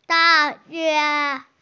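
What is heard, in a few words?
"da4yue1", Mandarin Chinese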